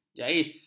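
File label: "jaiz", Malay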